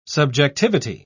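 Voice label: "subjectivity", British English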